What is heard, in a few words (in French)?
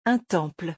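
"Un temple", French; vocabulaire; le lexique des vacances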